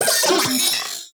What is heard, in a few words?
Vocal Alerted; Warframe; Corpus; Arisoctrats; Mini Moa